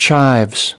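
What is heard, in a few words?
"chives", English word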